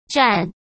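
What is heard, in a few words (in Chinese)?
"蘸", Mandarin Chinese; hsk; chinese-flashcards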